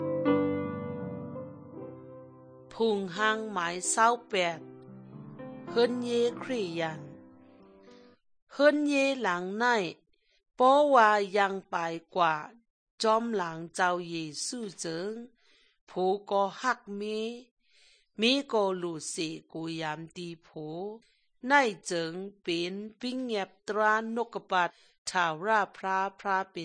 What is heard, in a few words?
good news shan eastern, drama; shan thailand bible society nt drama